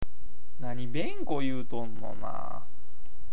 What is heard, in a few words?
"benko", awaji ben